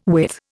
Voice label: "withe", Indian